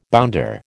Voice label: "bounder", American

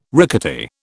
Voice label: "rickety", British

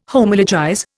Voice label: "Homologize", Indian

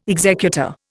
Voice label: "executor", Indian

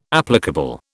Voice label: "applicable", British

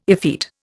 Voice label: "effete", Indian

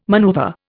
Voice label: "maneuver", Indian

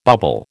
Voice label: "bubble", American

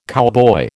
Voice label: "cowboy", American

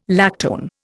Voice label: "lactone", Indian

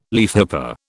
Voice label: "leafhopper", British

